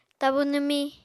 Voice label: "tabu nwmiñ", Apatani